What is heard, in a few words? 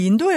"induva", Moita Verde (nord)